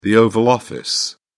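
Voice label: "oval office", British English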